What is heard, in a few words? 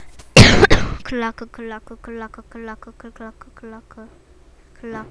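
"clock", spoken word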